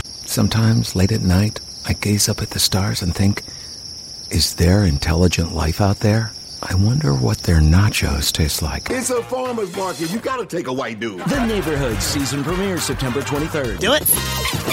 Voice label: promo men comedy